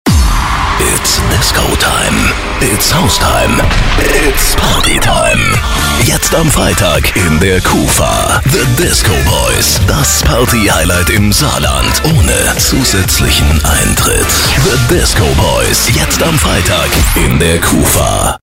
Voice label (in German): Funkspot; Kufa Discoboys